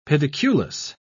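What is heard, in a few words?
"pediculous", English, British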